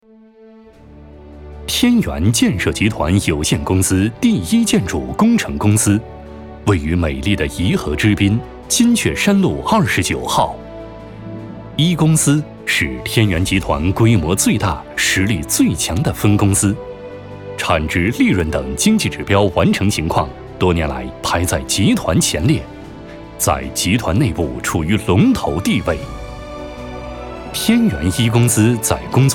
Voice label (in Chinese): 男 大气 企业介绍; 天元